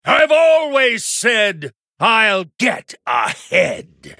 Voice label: male human threaten

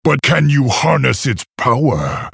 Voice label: male orc; mirror start

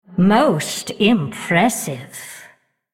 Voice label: female undead wow

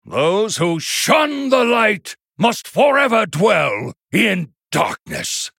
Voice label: male human threaten

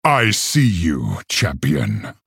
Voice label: male orc greetings

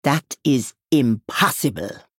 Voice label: female troll error generic

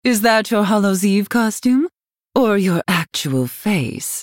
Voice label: female night elf happy halloween

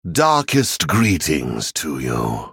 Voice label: male greetings; venthyr

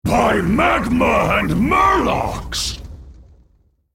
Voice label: male murloc elemental wow

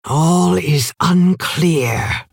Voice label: female orc thinking

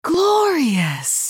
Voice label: female human wow

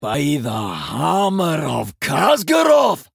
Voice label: male dwarf wow

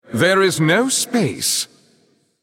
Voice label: male error full minions

